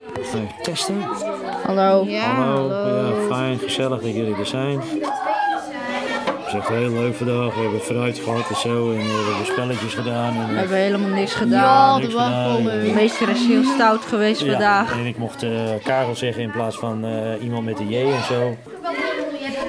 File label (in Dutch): Microfoon test; Podcast; Radio8A